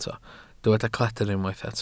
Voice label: "cledr", Welsh

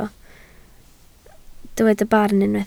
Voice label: "barn", Welsh

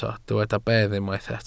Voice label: "bedd", Welsh

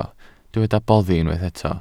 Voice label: "boddi", Welsh

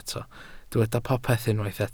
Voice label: "popeth", Welsh

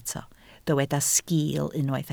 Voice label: "sgiil", Welsh

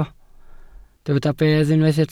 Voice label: "bedd", Welsh

